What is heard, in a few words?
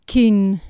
"cyn", Anglo Saxon